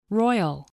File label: "royal", English spelling